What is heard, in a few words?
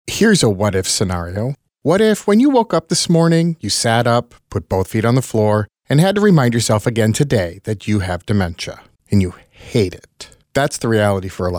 radio commercial; tudor oaks